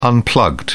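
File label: "unplugged", British English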